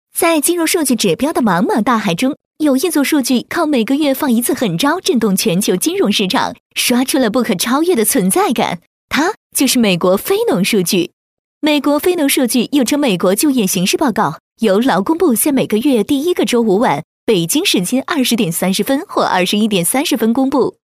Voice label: 女 美国金融数据-俏皮解说, 幽默搞怪; 飞碟说

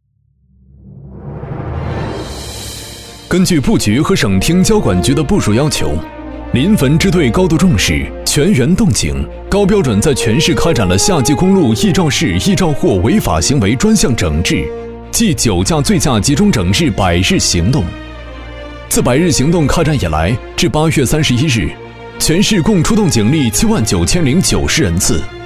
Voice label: 男 百日攻坚 沉稳严肃汇报专题

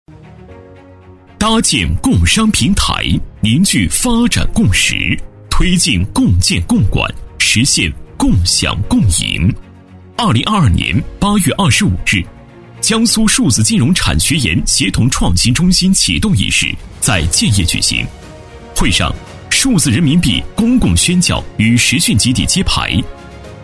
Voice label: 男, 大气硬朗; 专题; 数字人民币; 大气浑厚

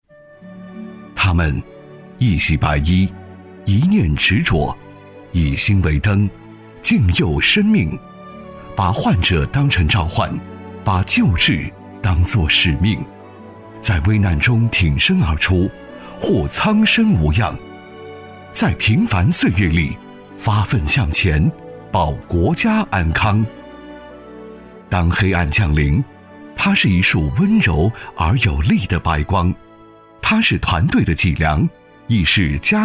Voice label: 男 学校宣传 年轻大气; 男181-时尚科技 高端大气; 重庆医科大学

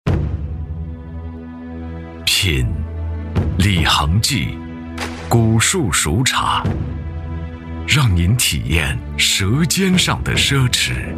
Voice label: 男 品质质感 高端; 醇厚磁性 高端大气; 古树熟茶; 李衡记古树熟茶

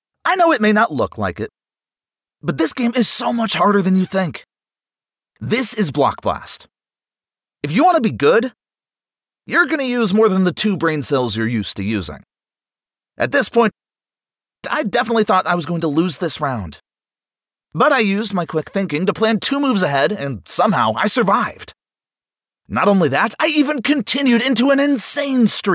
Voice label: 美式男声 信息流游戏

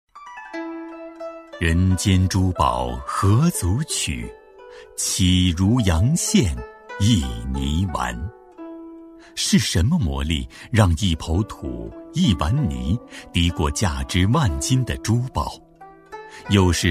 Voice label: 男, 宣传片, 文化气质抒情; 透亮故事感; 高端大气; 家和文化之旅-紫砂壶

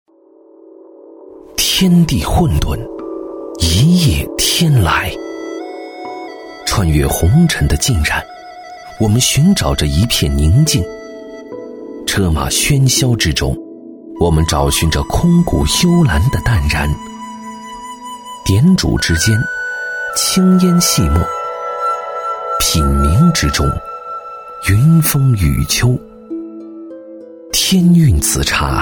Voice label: 男 纪录片 茶 舒缓 悠扬; 质感大气 舒缓抒情; 男C10-纪录片【茶】舒缓 悠扬

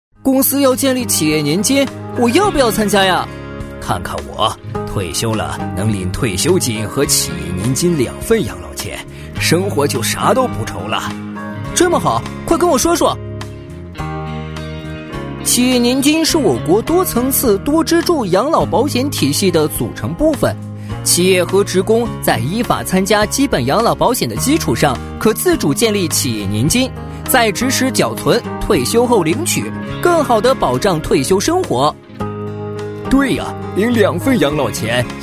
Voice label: 男 年轻人和中年人对话; 男WX-年轻多变; 素人自然

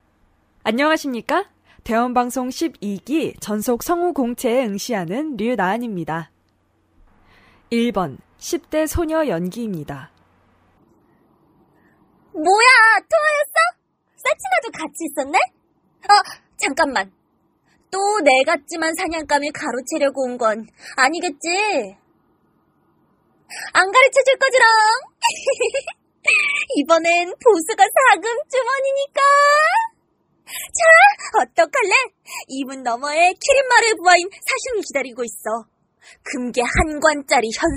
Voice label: 韩语女声

